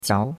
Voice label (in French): "jiao2", chinois; Cours-de-Chinois